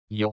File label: "yoj", Spagnolo; Y (o hie); Fonetica